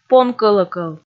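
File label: "понкӧлӧкӧл", Komi, female; kpv audio dict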